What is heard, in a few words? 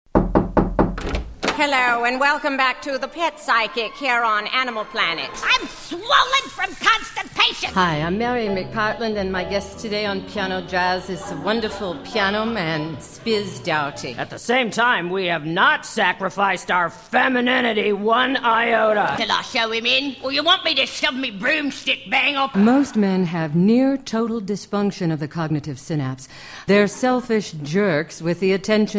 Character